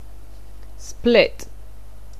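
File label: "split", English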